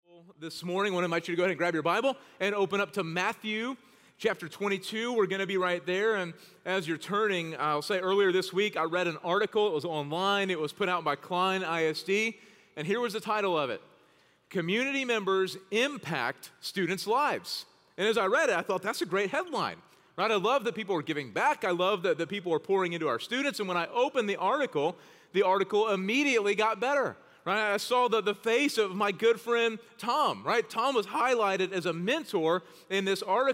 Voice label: Sermon English